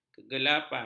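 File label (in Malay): "kegelapan", Malay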